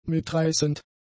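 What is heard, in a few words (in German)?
"mitreißend", German; adjectives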